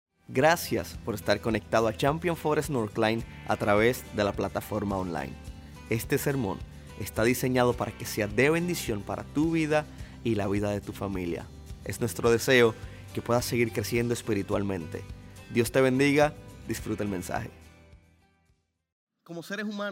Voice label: Sermon Spanish